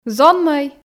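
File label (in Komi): "зонмӧй", Komi, female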